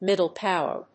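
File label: "middle power", English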